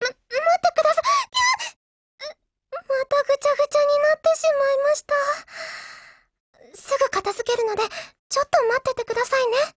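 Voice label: LOADING, Japanese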